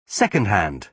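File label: "second hand", British English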